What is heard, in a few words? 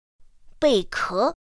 "bèiké", pinyin; pinyin2; pinyinji